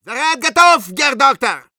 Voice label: Medic activate charge, ru; «Заряд готов, герр доктор!»